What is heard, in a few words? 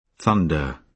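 "thunder" noun, British English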